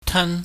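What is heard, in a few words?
"tan", Cantonese, high falling tone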